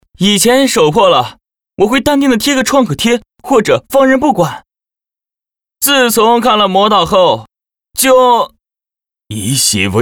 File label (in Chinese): 角色 精分多角色